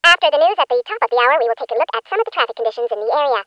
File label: speech 50 speed